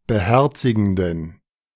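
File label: "beherzigenden", German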